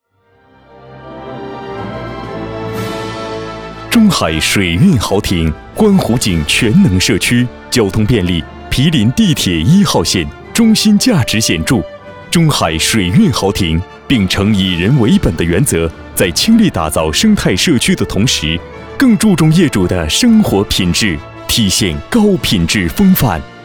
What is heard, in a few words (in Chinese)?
大气营销; 中海地产